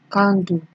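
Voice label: "candle" Nigerian Pidgin, female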